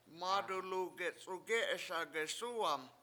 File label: mAdulu gec7ugE7eSa gesUUwam carry it there with hands, Washo